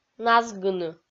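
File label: "назгыны", Komi, female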